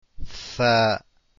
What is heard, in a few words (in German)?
Tha, Aussprache arabisch; ث (Tha)